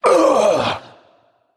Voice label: Male Naga Death